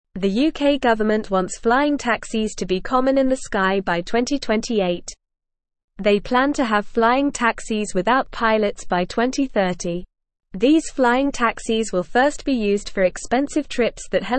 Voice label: English Lower Intermediate NORMAL Reading; UK Plans for Flying Taxis by 2028